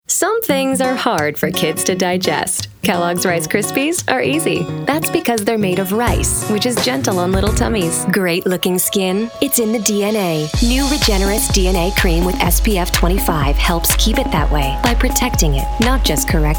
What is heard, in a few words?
Commercial VO; Commercial VO Demo